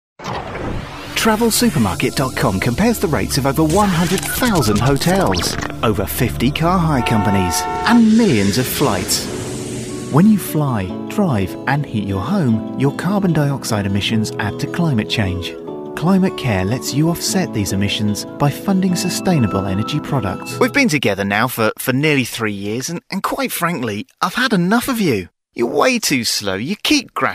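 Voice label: UK male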